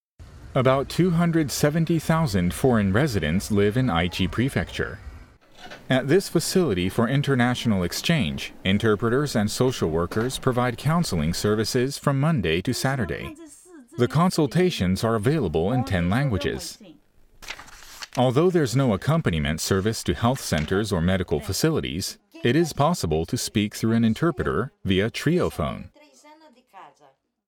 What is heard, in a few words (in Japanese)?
番組 TV ラジオ 無感情 堅い; 公共サービス情報; ウェブ